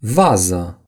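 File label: "vase", Romanian; gcompris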